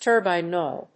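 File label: "turbine oil", English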